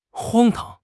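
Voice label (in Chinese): "荒唐", Mandarin Chinese; HSK6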